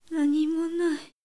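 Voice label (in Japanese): 女性 nanimo nai; 女性 「何もない」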